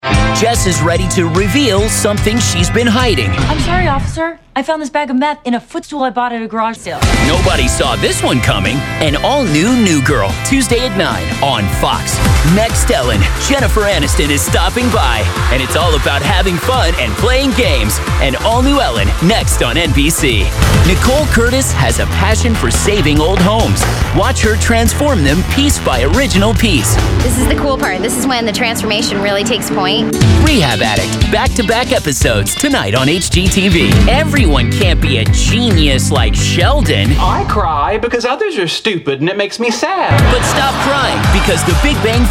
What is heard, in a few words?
Light Promo